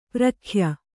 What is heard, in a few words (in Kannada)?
"ಪ್ರಖ್ಯ", Kannada